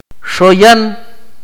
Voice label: "round", Akateko

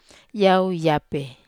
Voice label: "ape", Wamesa

